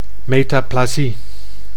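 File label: "metaplasie", Dutch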